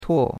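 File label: "tuo4", Mandarin Chinese; Cours-de-Chinois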